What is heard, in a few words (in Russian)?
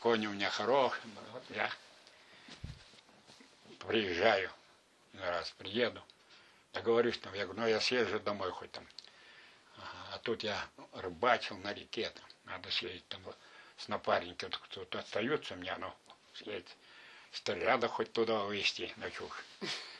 среднеобский говор; чушь